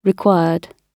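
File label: "required", British English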